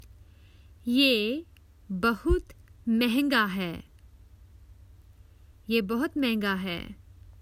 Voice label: That's too expensive, Common Hindi Phrases for travel